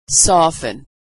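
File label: "soften", American English; vowel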